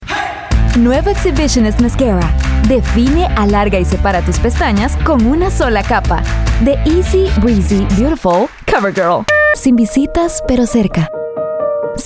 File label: spanish voice over female commercial